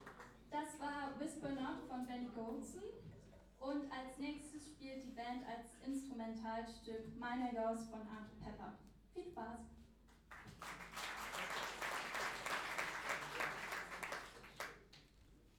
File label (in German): Ansage; Jazz-Club Trier e.V. (JCT); 25. Jazzgipfel (Tufa, Trier)